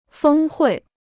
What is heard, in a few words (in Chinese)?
"峰会", Chinese